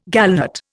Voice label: "gallnut", Indian

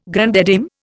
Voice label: "grande dame", Indian

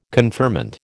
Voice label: "conferment", American

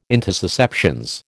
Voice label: "intussusceptions", American

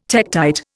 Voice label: "tektite", Indian

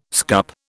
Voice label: "scup", British

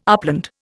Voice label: "upland", Indian

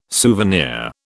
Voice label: "souvenir", British

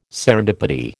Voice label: "serendipity", American

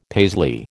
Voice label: "Paisley", American

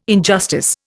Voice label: "injustice", Indian

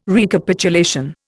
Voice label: "Recapitulation", Indian

